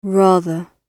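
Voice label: "rather", British English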